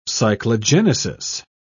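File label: "cyclogenesis", British English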